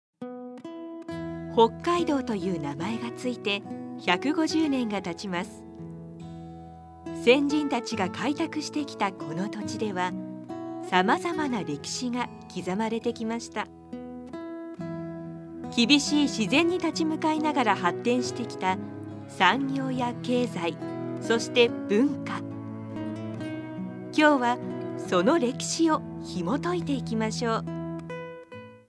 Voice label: documentary